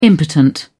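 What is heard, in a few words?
"impotent", British English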